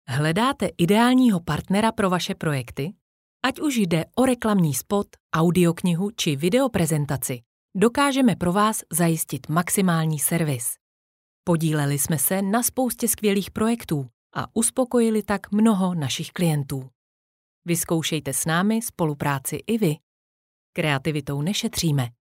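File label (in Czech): komercni seriozni, Komerční voiceover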